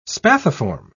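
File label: "spathiform", British English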